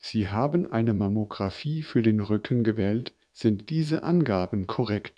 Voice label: german medical